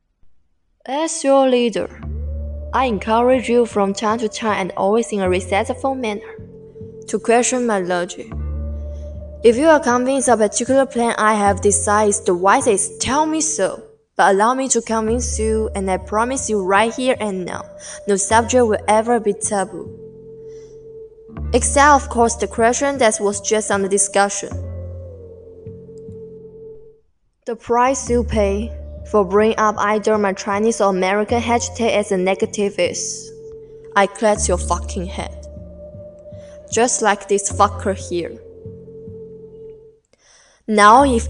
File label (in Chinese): 英语配音; 杀死比尔